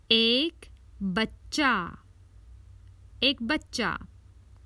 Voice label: Common Hindi Phrases for travel One child